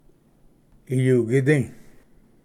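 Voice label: "iyugidi", Cherokee; ᎢᏳᎩᏗ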